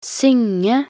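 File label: "synge", Norwegian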